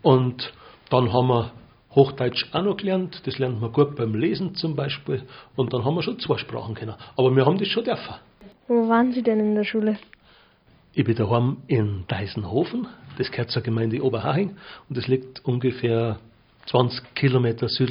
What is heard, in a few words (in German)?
Dialekt Beitrag; Turn On